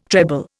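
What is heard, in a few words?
"treble", Indian English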